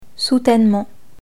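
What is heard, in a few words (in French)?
"soutènement", French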